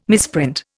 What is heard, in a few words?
"misprint", Indian